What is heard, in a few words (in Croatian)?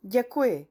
češki; POP UP Zagreb